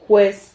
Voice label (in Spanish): "juez", Silabas